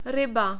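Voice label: "ryba", Czech, male; short vowels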